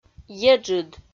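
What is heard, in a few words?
"еджыд", Komi, female; kpv audio dict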